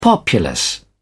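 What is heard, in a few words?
"populace", British English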